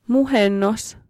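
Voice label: Finnish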